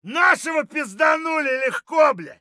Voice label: friendly down, voice; Escape from Tarkov